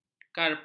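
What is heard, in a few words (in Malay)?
"karpus", Malay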